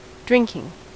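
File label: "drinking", US English; pronunciation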